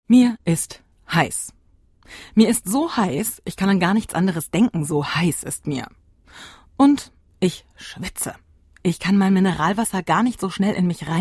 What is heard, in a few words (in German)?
deutsch autorin glosse; wdr4